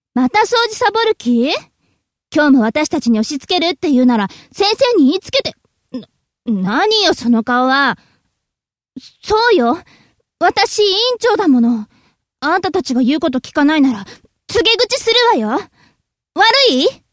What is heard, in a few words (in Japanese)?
f syozyo; 成人女性